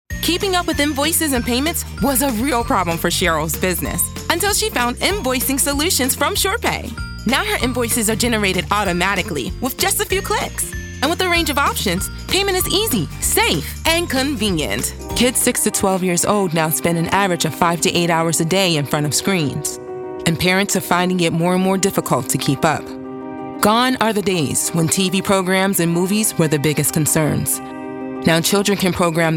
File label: USA